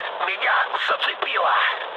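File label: Russian damaged pilot mood low, speech; War Thunder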